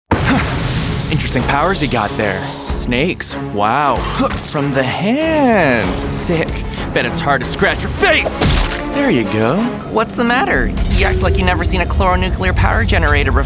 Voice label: animation men

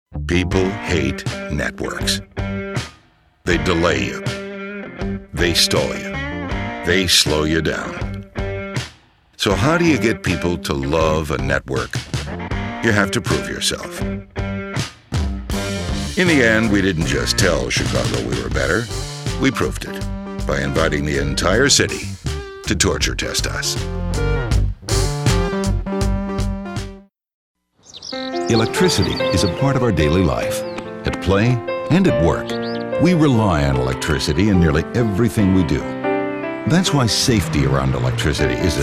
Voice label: corp narration

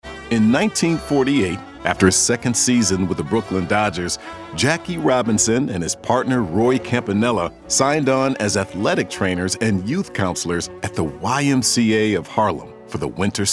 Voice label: narration men

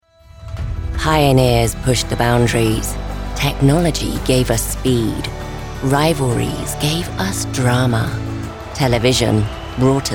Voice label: narration UK, women

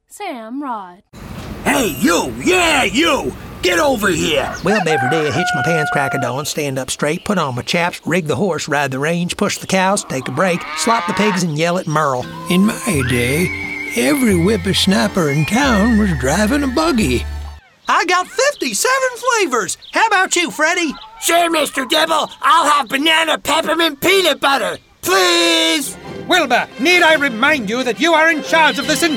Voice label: animation

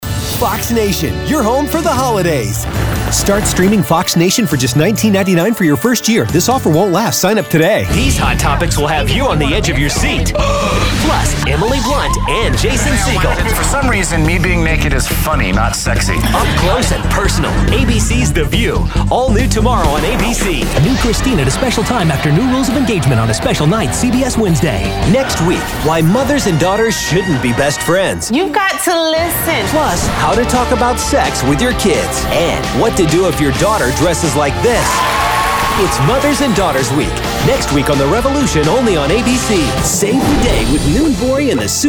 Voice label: promo men entertainment

